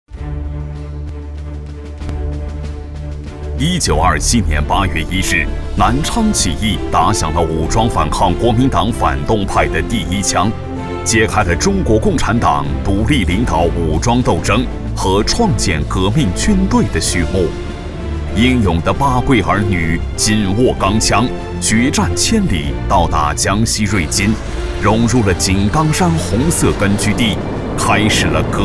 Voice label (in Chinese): 男 晚会片头; 建军90周年大庆晚会片头